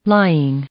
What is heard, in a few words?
"lying", English; verb